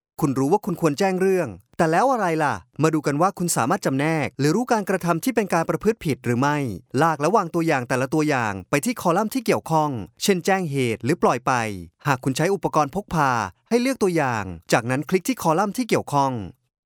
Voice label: thai male elearning